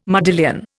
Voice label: "modillion", Indian